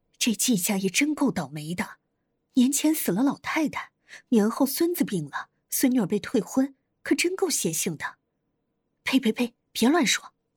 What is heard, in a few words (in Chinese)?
女中年角色音